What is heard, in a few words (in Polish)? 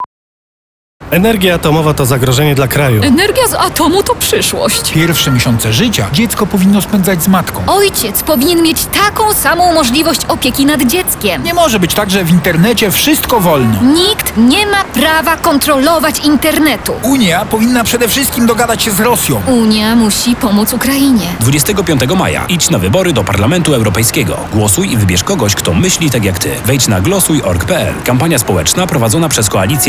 RADIO